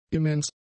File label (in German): "immens", German; adjectives